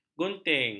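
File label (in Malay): "gunting", Malay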